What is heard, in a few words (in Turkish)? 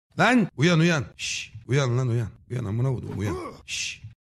lan uyan uyan alarm sesi ses efekti